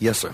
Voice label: yes sir, male

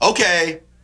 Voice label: "okay", expletives

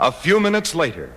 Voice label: few minutes later announcer

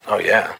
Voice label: "oh yeah", voice

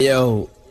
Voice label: "yo", Voice

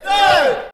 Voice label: "hey" group of men

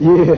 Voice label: "yeah" laugh, voice

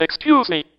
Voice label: "excuse me", robot

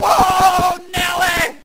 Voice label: "oh nelly", expletives, g-rated swears

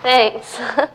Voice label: "thanks" girl; Voice; expletives

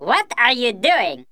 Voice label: what are you doing cartoon

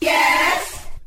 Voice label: "yes", women

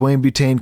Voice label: male; Voice drops

